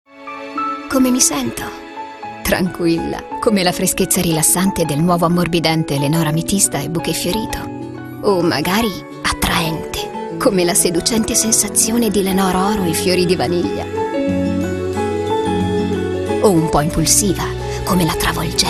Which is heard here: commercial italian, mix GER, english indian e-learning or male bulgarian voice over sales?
commercial italian